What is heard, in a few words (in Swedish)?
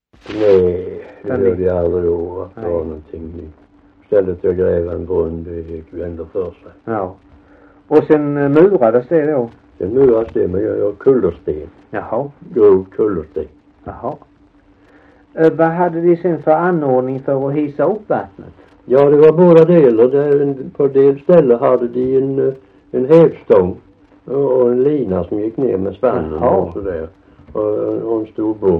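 Alfshög Halland, dialekter; Folkminnen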